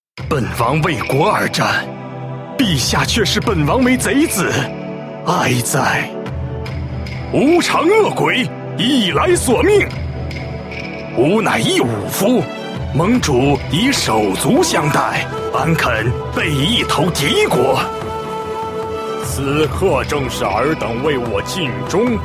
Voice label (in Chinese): zhonglaonian